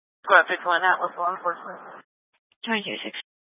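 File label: Incident Tactical, scanner audio recording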